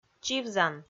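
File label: "чивзан", Komi, female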